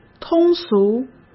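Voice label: "通俗", Mandarin Chinese; chinese flashcards; hsk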